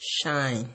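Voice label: "shine", Nigerian Pidgin, male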